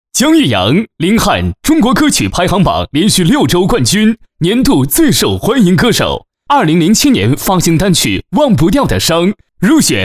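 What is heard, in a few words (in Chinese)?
【人物介绍】明星介绍男 磁性激情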